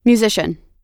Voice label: "musician", us

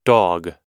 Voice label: "dog", pronunciation

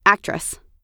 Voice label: "actress", us

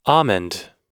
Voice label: "almond", us

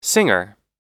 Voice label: "singer", us

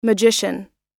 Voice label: "magician" US

